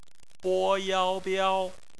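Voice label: "biao", bopomofo, chinese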